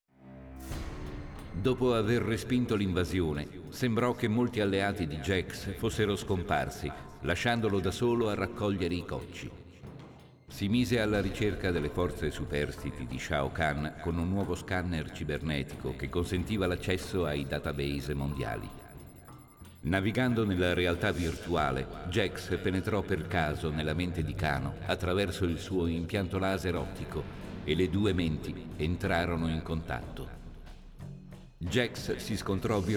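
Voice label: music voice over endings Italian; jax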